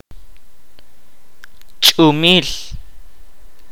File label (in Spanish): "estrella", kaqchikel